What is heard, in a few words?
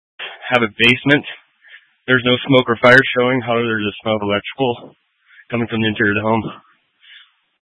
Incident Tac 1, scanner